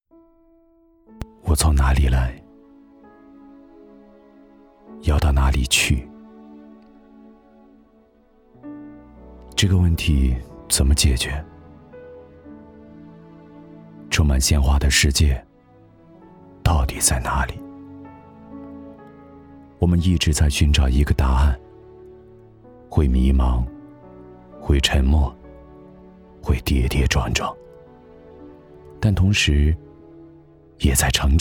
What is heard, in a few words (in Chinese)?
走心旁白; 答案